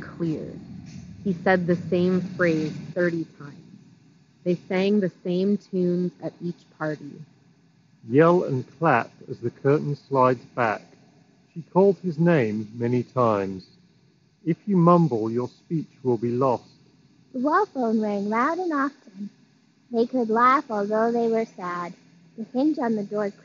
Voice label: Beyerdynamic Free Byrd Street microphone